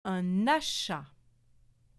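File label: "un achat", French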